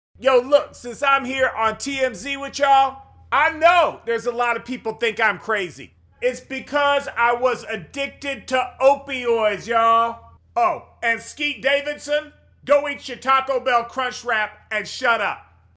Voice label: impression; Kanye West